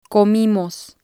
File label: "comimos", Spanish